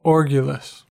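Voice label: "orgulous", American English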